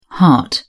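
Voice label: "hart", British English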